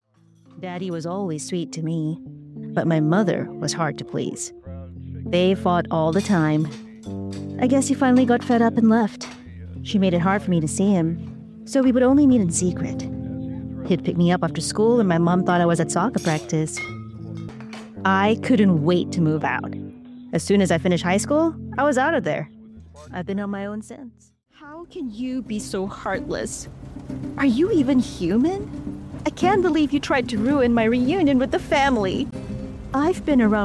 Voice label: Podcast Story Character VO Conversational, Emotional, Expressive, Female VO; GenAM